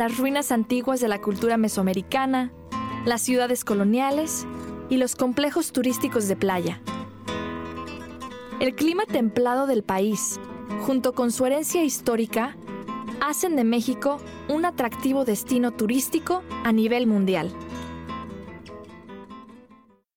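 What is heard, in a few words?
Latin American Spanish Documentary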